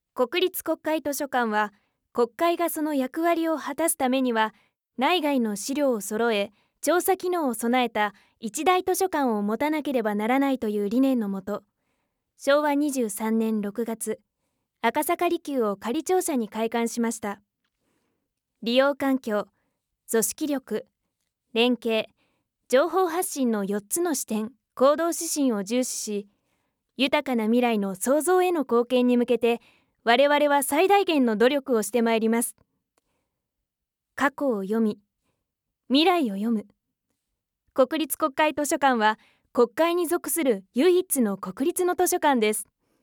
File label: female